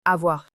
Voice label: "avoir", French